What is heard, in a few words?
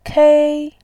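"kei1", Mandarin Chinese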